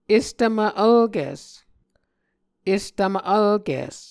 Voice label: "estvmv vlkis", Muscogee; Mvskoke Words with Nasalized Vowels

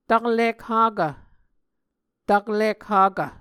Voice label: "taklik-hakv", Muscogee

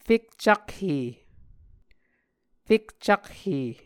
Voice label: "fekcakhe", muscogee

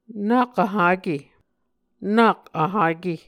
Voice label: "nak vhake", Muscogee

